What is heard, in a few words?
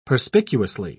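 "perspicuously", British English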